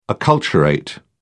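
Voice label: "acculturate", British English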